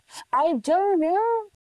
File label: Voice Acting es